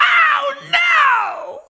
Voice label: "OOOOH NOOO", SHOUTS; Best Service; Hyper Dance